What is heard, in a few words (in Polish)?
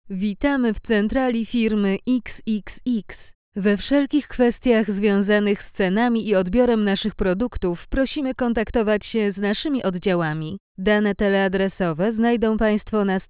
glos kobiecy